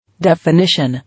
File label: "Definition", English